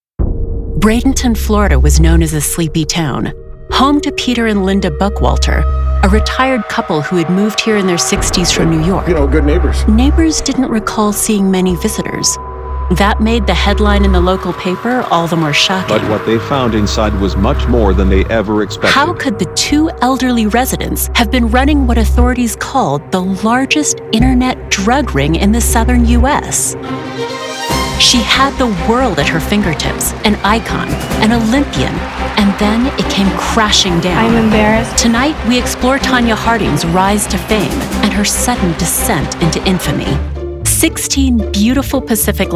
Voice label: TV NARRATION

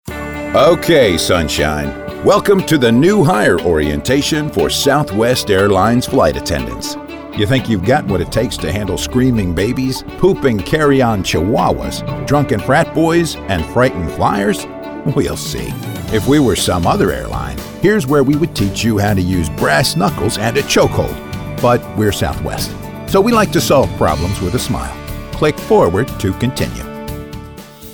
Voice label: E-Learning

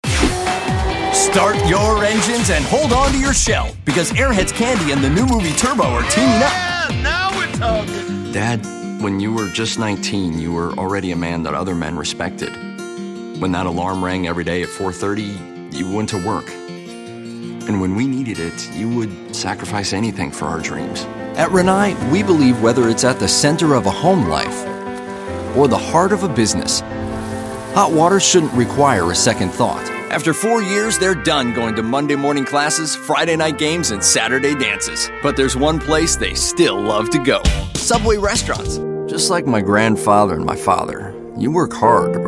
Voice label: Commercial Demo

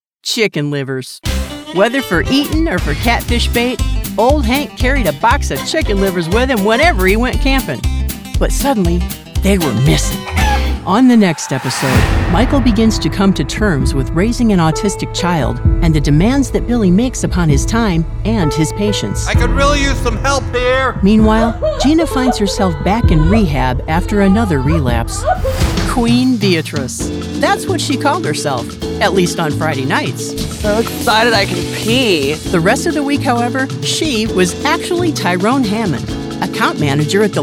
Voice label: TV Narration

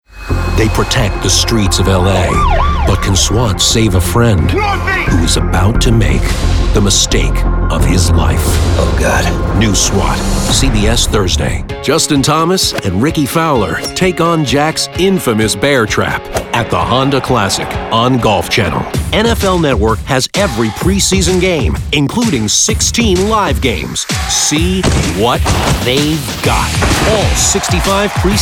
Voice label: Promo

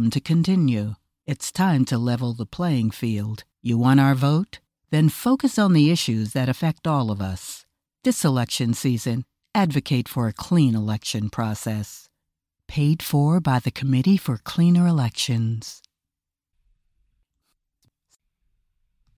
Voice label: Political Campaign Ad; DBP

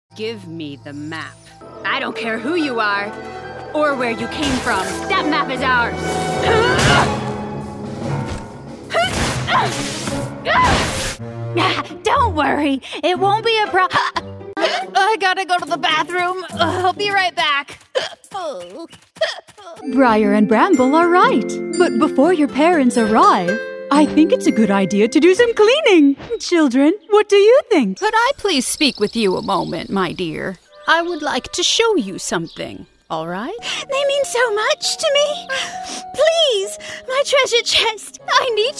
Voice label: CHARACTER; CHARACTER Demo

